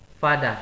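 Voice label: "fada", Nigerian Pidgin, male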